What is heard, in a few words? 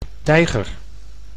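"tijger", Dutch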